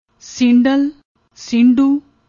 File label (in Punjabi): "seendal", Punjabi; ਸੀਂਢੂ